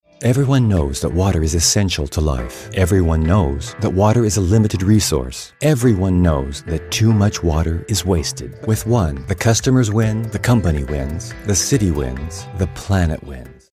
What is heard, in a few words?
Corporate